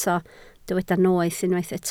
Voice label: "noeth", Welsh

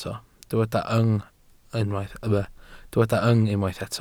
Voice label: "yng", Welsh

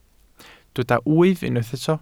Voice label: "wyf", Welsh

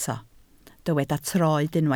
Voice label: "troed", Welsh

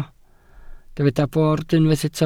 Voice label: "bord", Welsh

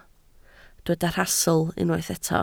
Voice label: "rhastl", Welsh

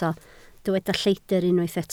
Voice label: "lleidr", Welsh

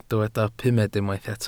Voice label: "pumed", welsh

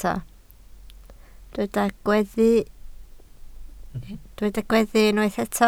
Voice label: "gweddu", Welsh

